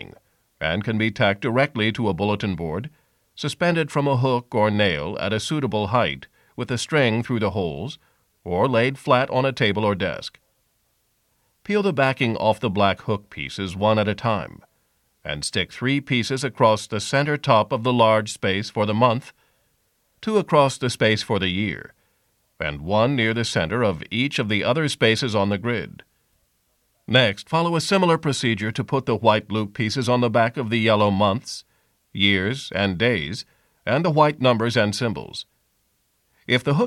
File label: Classroom Calendar Kit Instructions English